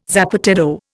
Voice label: "zapateado", Indian